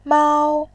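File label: "mao", Mandarin, tone 1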